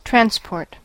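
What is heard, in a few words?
"transport", English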